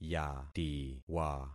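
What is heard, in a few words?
"yatiwa", concatenated syllables; Affixing experiment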